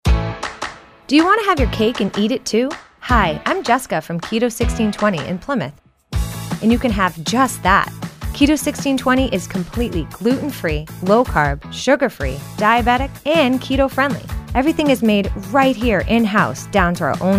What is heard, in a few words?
Commercial; WATD